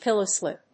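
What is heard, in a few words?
"pillow slip", English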